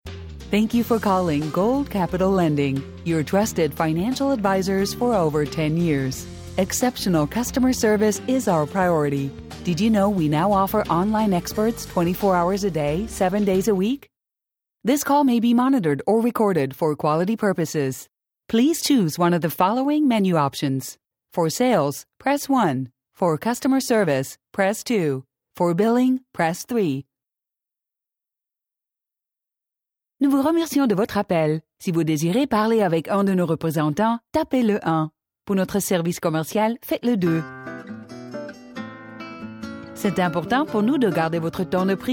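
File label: IVR English French German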